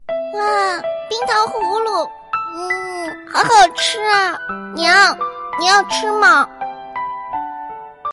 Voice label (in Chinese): 萝莉音